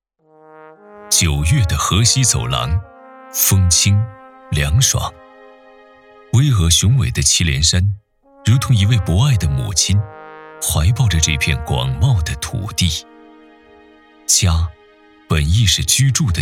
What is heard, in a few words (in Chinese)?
汇报类; 甘肃省林草局祁连山下我的家